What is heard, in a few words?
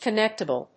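"connectable", English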